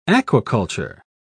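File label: "aquaculture", British English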